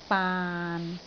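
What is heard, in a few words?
"baan6", Cantonese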